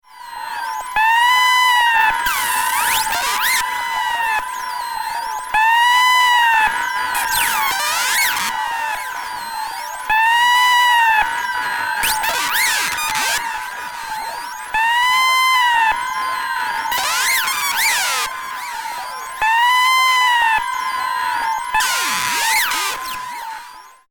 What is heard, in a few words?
conference call